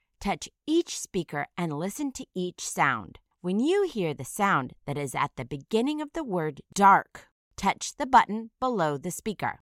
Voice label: "dark", initial phoneme isolation